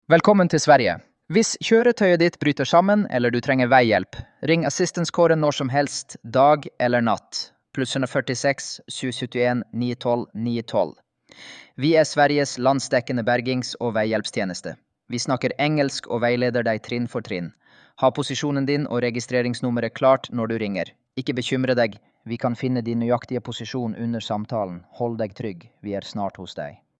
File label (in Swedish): Norsk röst